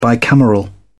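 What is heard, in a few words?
"bicameral", British English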